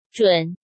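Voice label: "Zhǔn", Chinese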